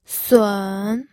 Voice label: "sun3", pinyin